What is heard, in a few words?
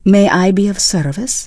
Draenei Female Military Vendor